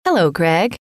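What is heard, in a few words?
hello, female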